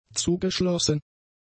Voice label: "zugeschlossen", German; adjectives